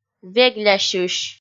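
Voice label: "веглясьысь", Komi, female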